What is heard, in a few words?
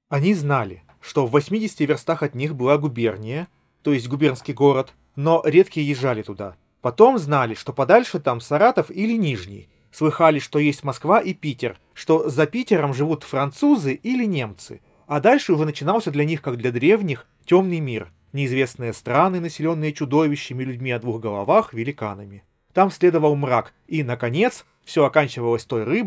Recorder; Запись на профессиональный рекордер; realme buds clip